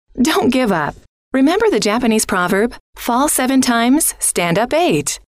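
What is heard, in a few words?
dont give up, female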